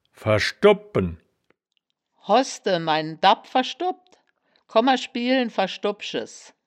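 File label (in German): "ver-schdo-bpen", Wittlicher Wörterbuch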